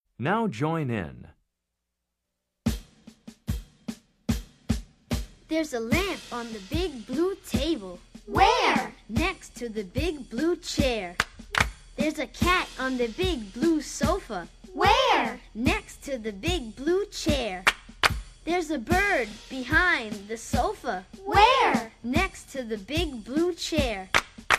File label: jazz chant